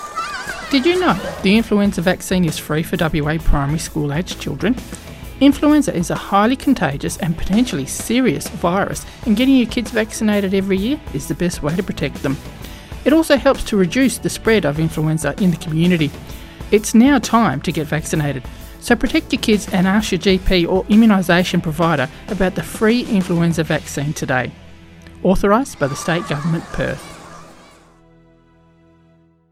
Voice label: indigenous radio ad; Primary school influenza; Immunisation